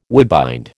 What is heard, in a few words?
"woodbind", American